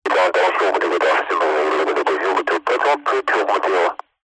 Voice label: airplane atc comm pilot radio indistinguishable, vehicles